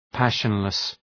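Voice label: "passionless", English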